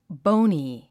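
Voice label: "bony", English word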